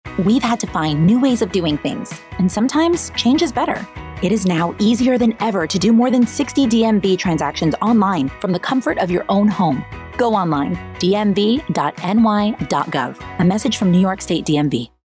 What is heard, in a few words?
Radio English; NYSDMV; GoOnline; New York State - Campaign (Audio)